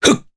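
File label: Vox Casting, Japanese; Nicx; kings raid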